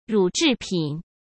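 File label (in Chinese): "乳制品", Mandarin Chinese; hsk; chinese-flashcards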